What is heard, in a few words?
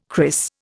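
"cris", Indian English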